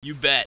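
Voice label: "yes", English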